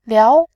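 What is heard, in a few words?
"liao2", Mandarin Chinese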